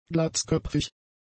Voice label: "glatzköpfig", German; adjectives